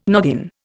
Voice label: "noggin", Indian

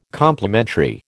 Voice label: "Complementary", American

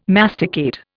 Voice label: "masticate", Indian

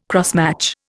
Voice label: "crossmatch", Indian

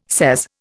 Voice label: "sez", Indian

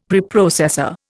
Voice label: "Preprocessor", Indian; Dictionary

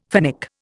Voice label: "Finnic", Indian

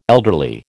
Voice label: "elderly", American

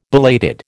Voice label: "belated", American

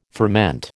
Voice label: "ferment", American

